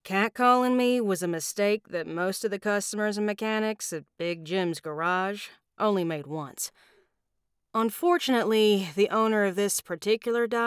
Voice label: Comedic YA Southern

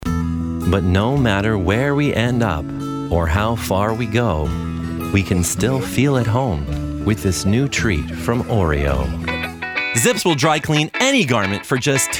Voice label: Commercial; Los Angeles, United States

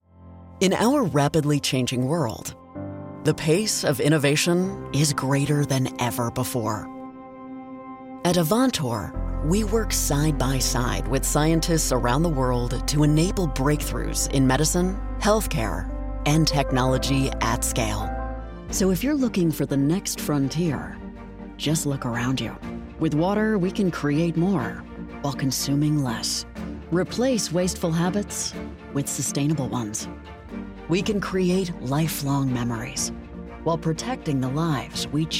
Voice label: Corporate Explainer

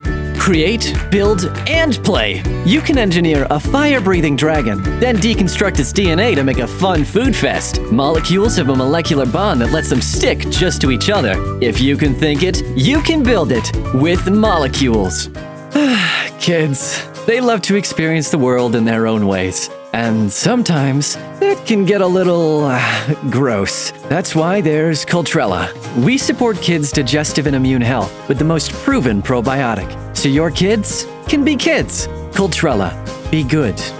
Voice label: Commercial

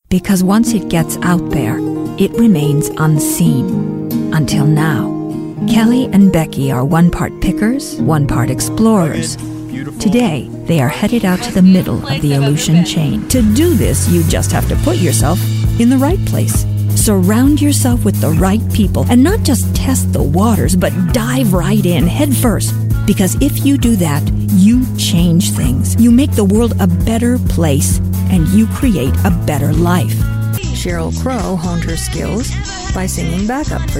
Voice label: Voice Actor, New Zealand

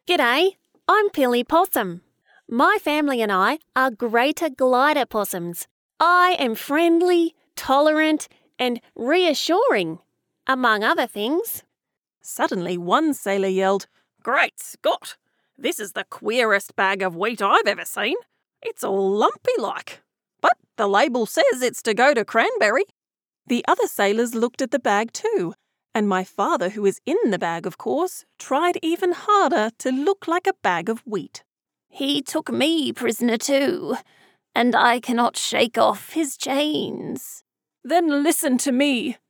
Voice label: Childrens Audiobooks

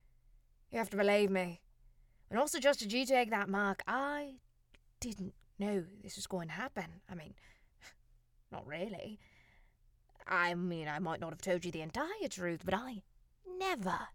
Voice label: cockney accent

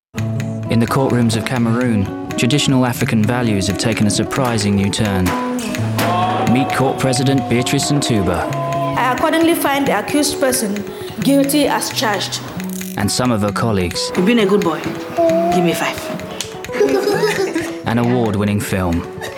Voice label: Promo; Sisters In Law

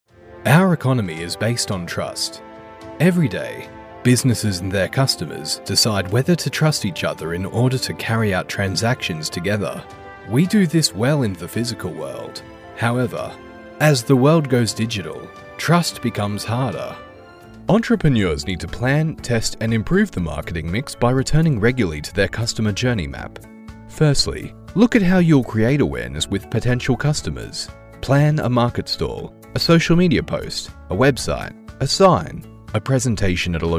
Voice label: Corporate Narration

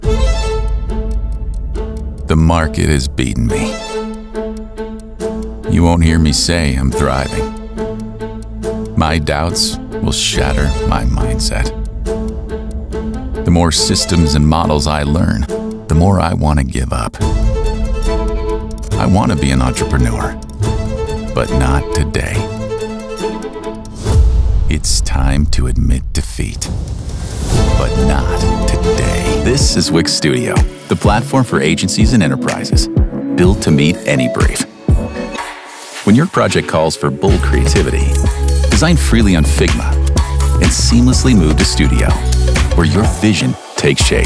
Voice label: Commercial

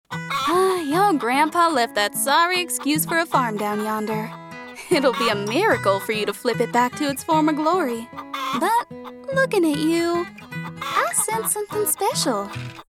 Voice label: Southern

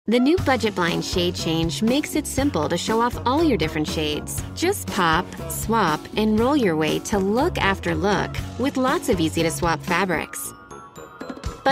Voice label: COOL SOPHISTICATED STYLISH; Budget Blinds

